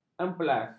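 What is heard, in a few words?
"empelas", Malay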